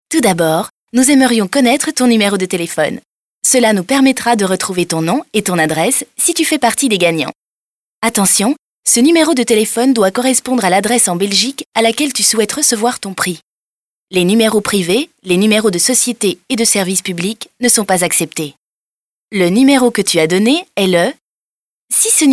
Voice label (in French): Voix francophone